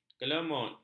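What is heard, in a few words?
"kelemut", Malay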